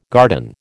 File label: "garden", American